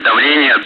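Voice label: Speech, Russian, air traffic control; QFE; DCS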